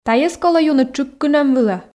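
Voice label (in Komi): "тайӧс колӧ юны тшыг кынӧм вылӧ", Komi, female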